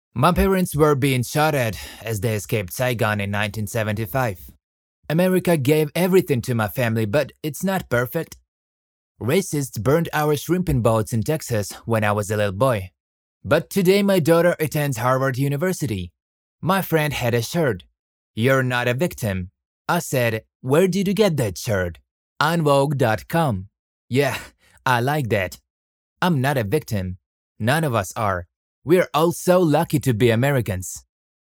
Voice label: Ad for a website selling shirts in English